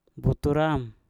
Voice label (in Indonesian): "butur am" mata kaki, Mpur